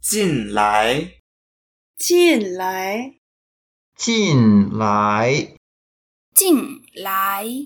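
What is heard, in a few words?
"jin4lai2", Mandarin Chinese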